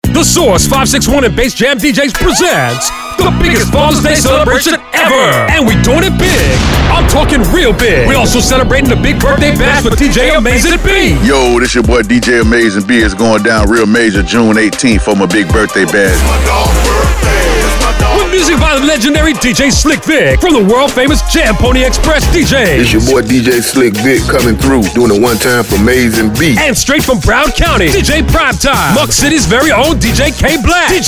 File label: COMMERCIAL; AMAZING B BIRTHDAY BASH